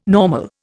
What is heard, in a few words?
"normal", Indian